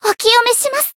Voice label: heal jp; yuna

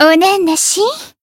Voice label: finish, jp; hoozuki

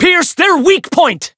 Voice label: variable chant

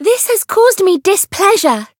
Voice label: variable chant; leviachan

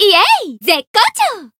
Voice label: growth, jp; shayne

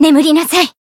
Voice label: finish, jp; tsukiha

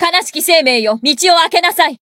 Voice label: Voice encount jp; herena

